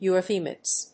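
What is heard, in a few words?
"eurythmic", English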